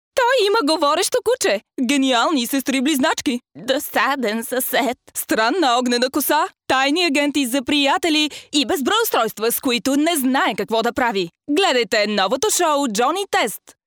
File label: female bulgarian voice over character